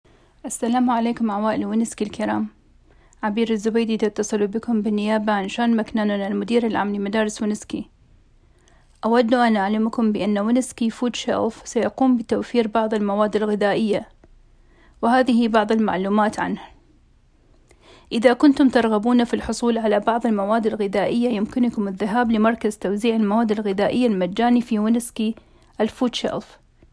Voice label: arabic; foodshelf